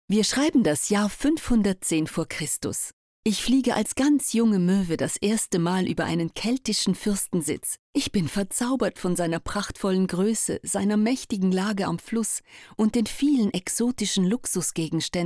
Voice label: Audioguide Antikenmuseum Basel DE; AveCaesar

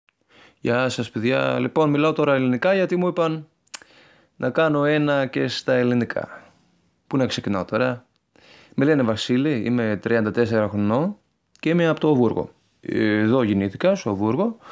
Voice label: Griechisch; LOFT VOICES

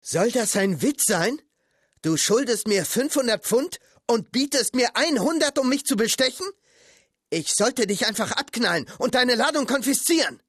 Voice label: synchron

